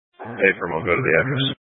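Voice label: sheriff dispatch radio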